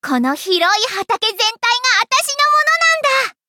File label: upgrade, ja; cookie run